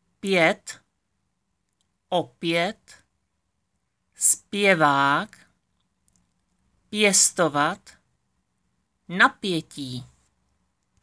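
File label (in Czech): "pě", Czech pronunciation; audio na výslovnost PĚ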